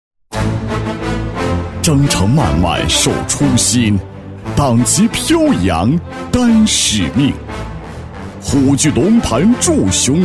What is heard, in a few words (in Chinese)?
男 大气干练, 厚重大气 大气浑厚; 南京海关高质量党建